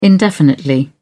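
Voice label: "indefinitely", British English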